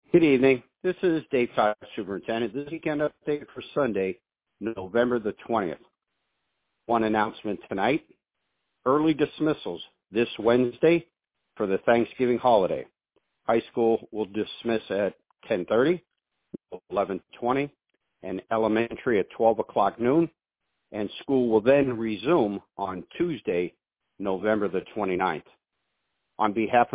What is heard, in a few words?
Robocall